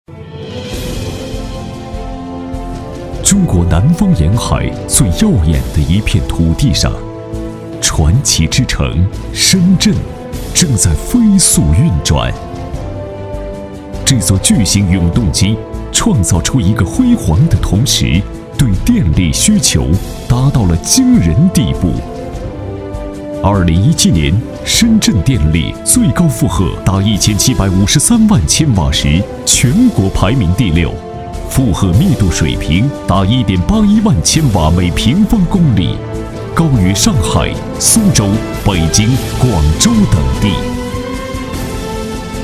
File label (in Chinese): 男, 党政专题, 大气磅礴; 深圳国家电网改革综述